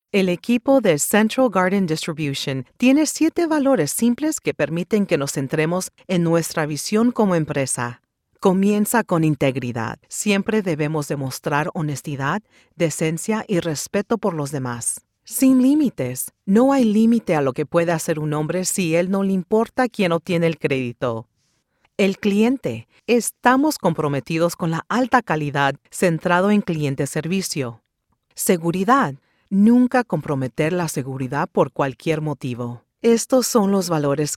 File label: Spanish eLearning